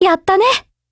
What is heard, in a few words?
APPRECIATE, JP; ColtSCW